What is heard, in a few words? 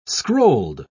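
"scrolled", British English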